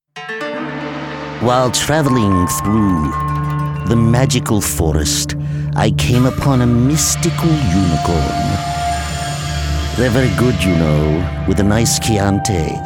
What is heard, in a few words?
english american others